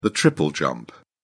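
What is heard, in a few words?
"triple jump", British English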